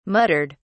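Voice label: "muttered", British English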